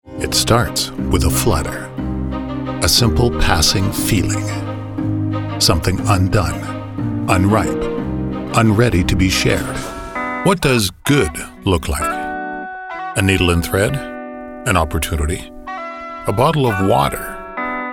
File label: Narration